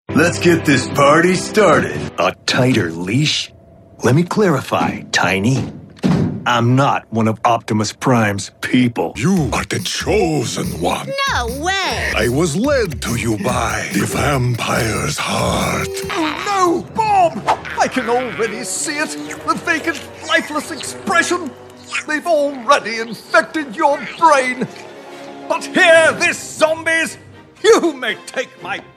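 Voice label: animation male, Voice Over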